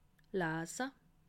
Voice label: "laase", alsace

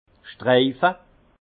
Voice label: "streife", alsace; doler; vin

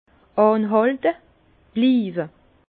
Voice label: "anhalte", Bas Rhin; persister

